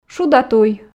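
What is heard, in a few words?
шуда туй, Komi, female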